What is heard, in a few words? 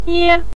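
"mie", Mandarin Chinese neutral tone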